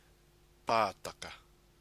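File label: "pataka", Māori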